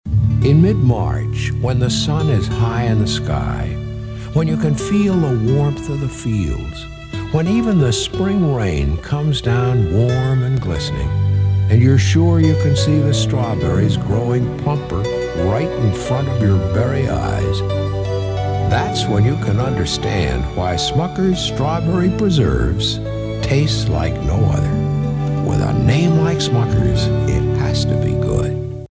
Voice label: Radio Commercials Strawberry Jam; Smuckers